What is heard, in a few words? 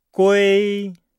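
"gui", Chinese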